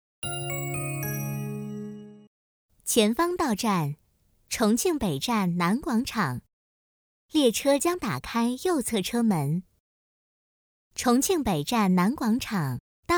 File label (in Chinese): 女 重庆地铁音